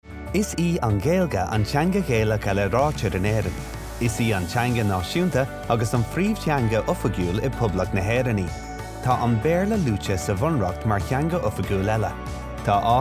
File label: Gaelic